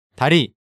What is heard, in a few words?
"Dari", Korean